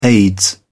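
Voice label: "aids", British English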